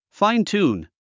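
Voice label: "fine-tune", English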